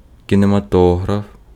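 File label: Ukrainian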